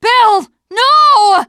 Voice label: teengirl, voice; c6 dlc3 bill dies